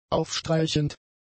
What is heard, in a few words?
"aufstreichend", German; adjectives